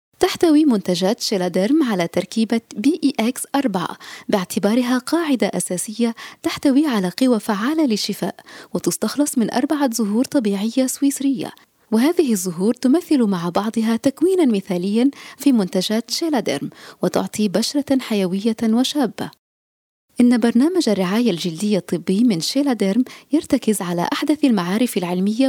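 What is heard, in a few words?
Arabic, Saudi Arabia, female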